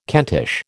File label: "Kentish", American